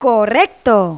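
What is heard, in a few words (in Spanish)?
"correcto", Spanish; Pipo-Aprende musica con Pipo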